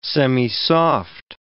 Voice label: "semisoft", English

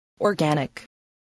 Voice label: "organic", English voice

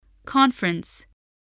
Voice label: "conference", english

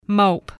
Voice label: "mope", voice, spelling

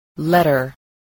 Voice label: "letter", spelling

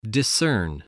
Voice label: "discern", english spelling

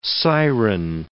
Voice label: "siren", English

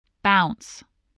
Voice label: "bounce", spelling

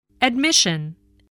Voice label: "admission", English voice

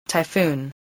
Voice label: "typhoon", English spelling voice

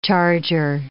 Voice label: "charger", English

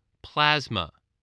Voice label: "plasma", voice spelling

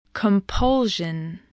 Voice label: "compulsion", english

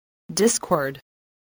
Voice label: "discord", english spelling

